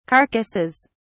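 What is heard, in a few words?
"carcasses", British English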